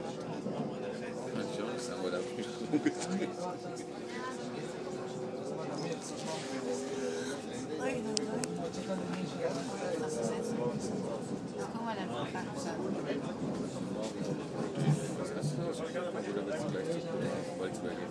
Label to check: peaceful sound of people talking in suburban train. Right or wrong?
Right